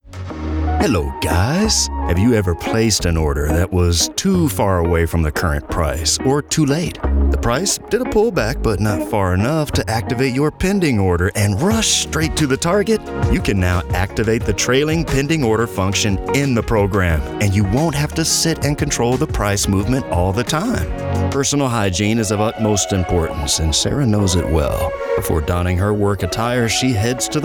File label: eLearning Reel